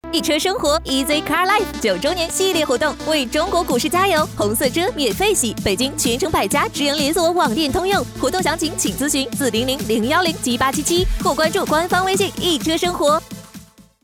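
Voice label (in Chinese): 女【电台广告】车; 行业：汽车 分类：电视电台 风格：激情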